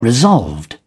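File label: "resolved", British English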